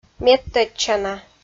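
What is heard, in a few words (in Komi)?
"медтӧдчана", Komi, female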